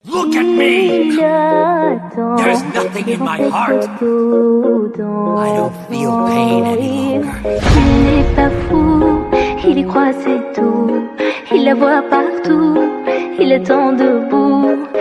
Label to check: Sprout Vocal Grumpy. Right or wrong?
Wrong